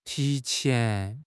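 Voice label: "ti2 qian2", Mandarin Chinese; HSK4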